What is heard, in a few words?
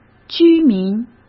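"居民", Mandarin Chinese; hsk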